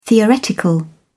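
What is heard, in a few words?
"theoretical", British English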